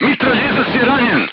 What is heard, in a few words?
voice message gunlayer wounded, crew tanks serbian